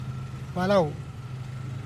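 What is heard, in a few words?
"belau", Teotitlán del Valle Zapotec; eye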